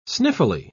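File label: "sniffily", British English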